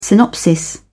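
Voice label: "synopsis", British English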